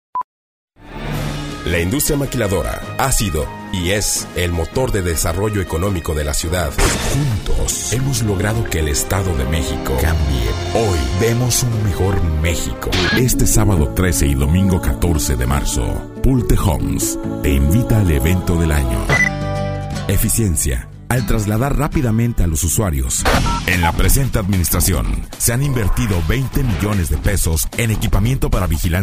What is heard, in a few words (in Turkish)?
ispanyolca seslendirme erkek sesi